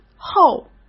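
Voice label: "厚", Mandarin Chinese; chinese-flashcards; hsk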